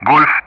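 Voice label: Speech Sound Russian Common Player Alphabet; Golf-begin; DCS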